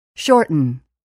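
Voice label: "shorten", English word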